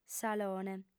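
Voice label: "salone", female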